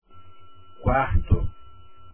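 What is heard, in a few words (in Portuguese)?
"quarto" Portuguese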